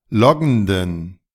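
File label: "loggenden", German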